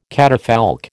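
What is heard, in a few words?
"catafalque", American English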